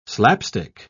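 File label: "slapstick", English word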